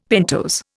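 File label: "pentose", Indian English; Dictionary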